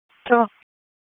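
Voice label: Raleigh Police Northeast District Dispatch, p25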